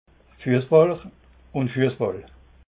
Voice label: "fuessball", alsace

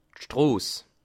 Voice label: "stross", alsace

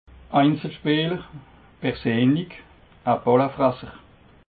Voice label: "einzelspeeler", alsace

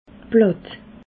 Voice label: "platt", alsace

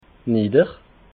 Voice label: "nidder", alsace

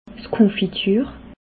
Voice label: "konfitur", alsace

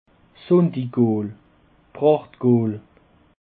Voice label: "sundigool", Alsace

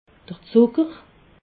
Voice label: "zucker", alsace

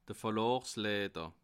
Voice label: "verlaasleiter", alsace

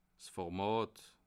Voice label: "format", alsace

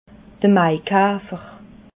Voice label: "maikafer", alsace

